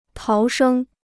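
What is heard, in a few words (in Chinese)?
"逃生" Chinese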